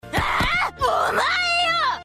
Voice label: pissed off